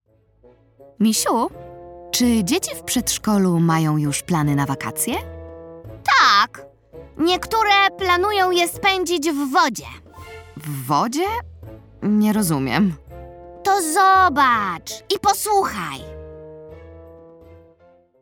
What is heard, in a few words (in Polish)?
narracja; czasopismo mis; lato; pani och ach wakacje rozmowa